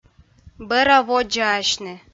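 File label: "бӧра-водзаасьны", Komi, female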